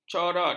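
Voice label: "corot", Malay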